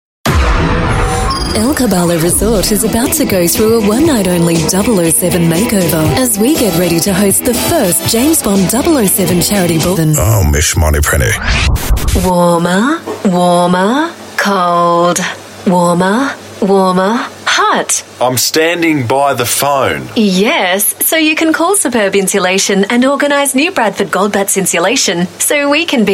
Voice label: AU MidAtlantic English Commercial, Australian English Female